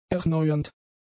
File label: "erneuernd", German; adjectives